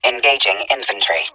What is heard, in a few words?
engaging infantry, Titan, EN, Tone Voice; Titanfall 2